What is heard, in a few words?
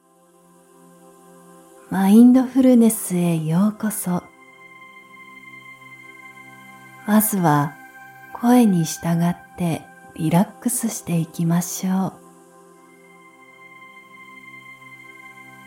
Meditation and Mindfulness Healing Voices